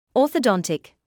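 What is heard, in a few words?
"orthodontic", British English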